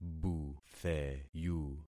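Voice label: "butheyu", concatenated syllables; Affixing experiment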